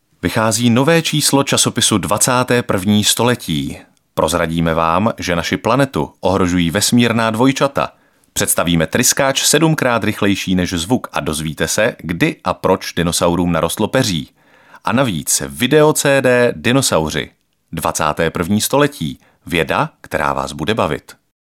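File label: Male Czech commercial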